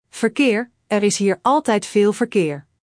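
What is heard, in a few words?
"verkeer", Dutch; Spelling Gr4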